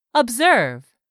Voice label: "observe", English; 3000 words